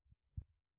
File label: Voice Note